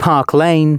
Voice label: announcements male